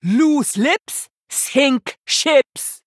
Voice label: Female Troll; Hooktusk

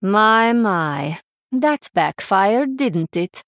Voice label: Female Draenei, Loss

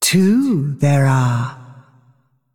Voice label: Female Dragon

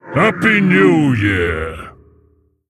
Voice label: Male Mogu HAPPY NEW YEAR LUNAR

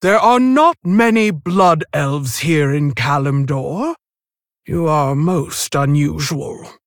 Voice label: Female Tauren; Helka; Valeera

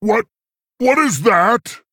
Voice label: Male Tauren; Story Hero Guff; Story Guff Pre Mission7

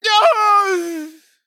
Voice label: Male Blood Elf Death

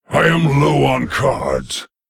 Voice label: Male Lich Low Cards

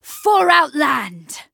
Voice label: Female Night Elf Attack

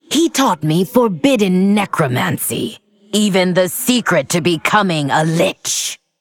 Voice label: Female Undead

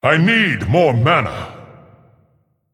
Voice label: Male Titan Forged Emote error need mana

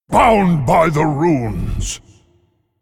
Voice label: Male Eternal One Attack

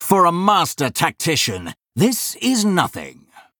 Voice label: Male Blood Elf Play

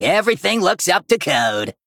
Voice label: Male Goblin Play

